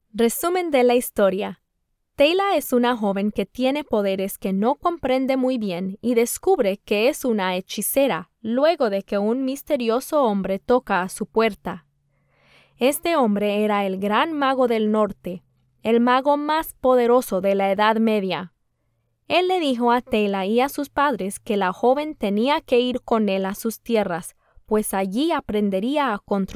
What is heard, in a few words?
Spanish and English audiobook narration